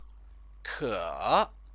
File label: "ke", Chinese